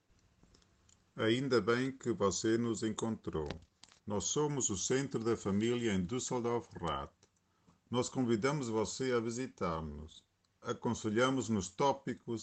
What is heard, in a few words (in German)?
portugiesisch; rather familienzentrum; caritas